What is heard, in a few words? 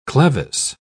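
"clevis", British English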